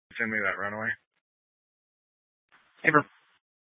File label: Police Dispatch; Police 1 Dispatch